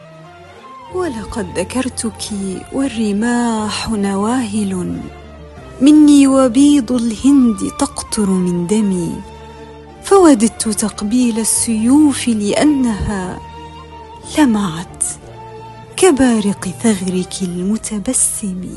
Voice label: شعر عربي

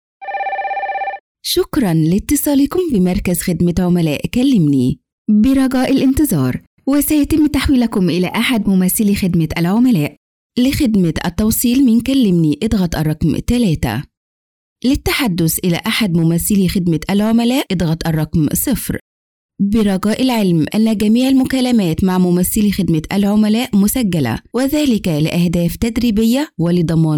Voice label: IVR عامية مصرية

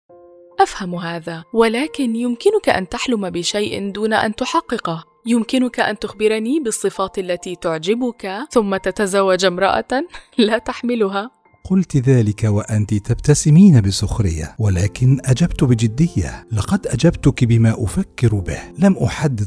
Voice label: مقطع من رواية; رواية ليطمئن قلبي للكاتب أدهم شرقاوي

